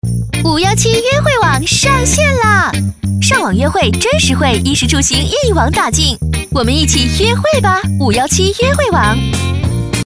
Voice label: 女国 广告 互联网 约会网 活力

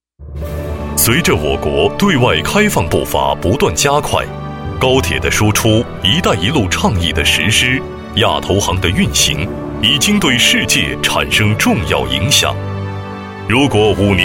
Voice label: 专题 企业 公司企业宣传 稳重

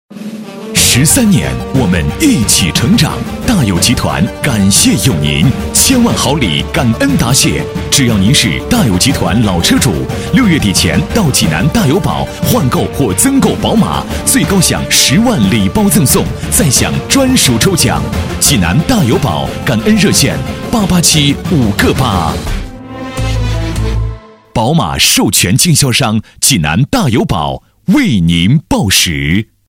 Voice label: 广告 促销 激情, 男国; 大友宝马

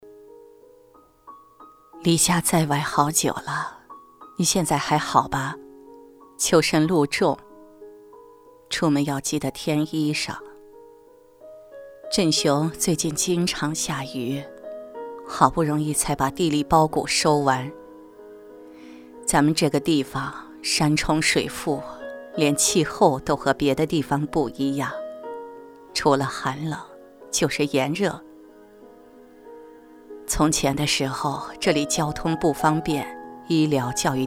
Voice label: 动画 老人; 月是故乡明

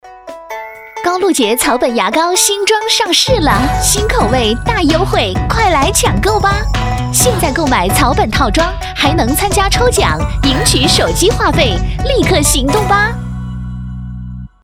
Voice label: 广告 食品饮料 牙膏 甜美, 女国

